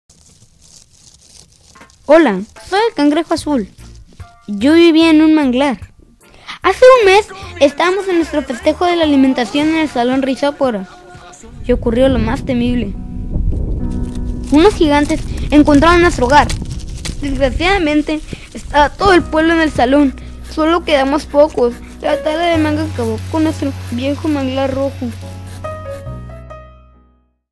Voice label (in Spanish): AudioCuento; Salon Rhizophora